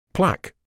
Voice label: "plaque", English